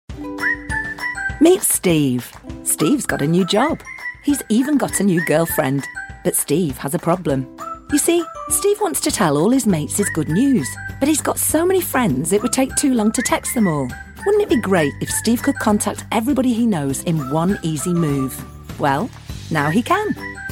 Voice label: explainers